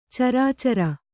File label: "ಚರ್ಚರಿ", kannada